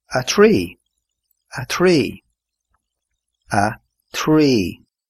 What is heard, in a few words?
three irish